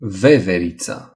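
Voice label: "squirrel", Romanian; gcompris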